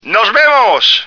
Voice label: see ya, male

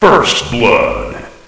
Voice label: first blood, announcer

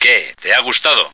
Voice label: like that, male

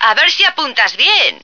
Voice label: "aim", female; Marisa the Magician

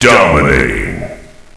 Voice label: dominating, announcer

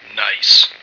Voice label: nice, male

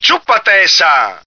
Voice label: eat that, male

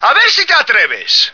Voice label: bite me, male

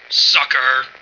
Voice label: sucker, male

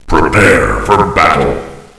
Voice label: prepare, announcer

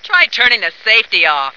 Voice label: "safety", female

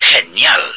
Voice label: nice, male

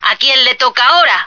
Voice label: female want some; Marisa the Magician

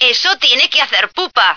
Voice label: "had to hurt", female; Marisa the Magician

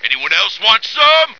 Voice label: want some, male

